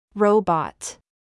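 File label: "robot", English word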